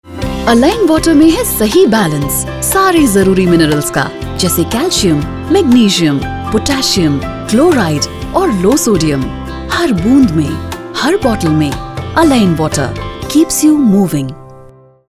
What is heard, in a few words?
TVC hindi